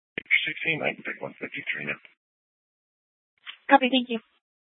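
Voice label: police dispatch radio, scanner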